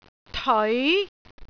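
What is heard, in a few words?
"teoi2", Cantonese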